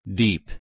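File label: "deep", English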